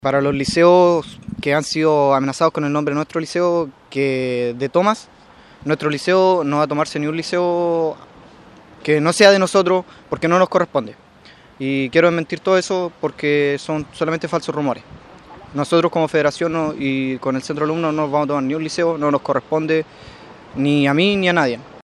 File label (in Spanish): CUÑA